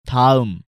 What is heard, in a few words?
"daeum", Korean